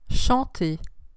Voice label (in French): "chanter", French